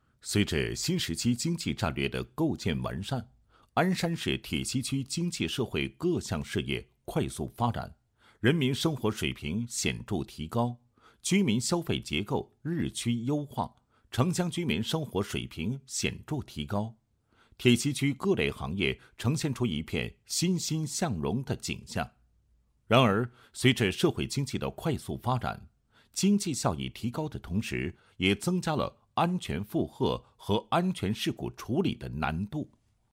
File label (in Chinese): 男, 公益宣传 民用燃气安全警示片配音; 铁西区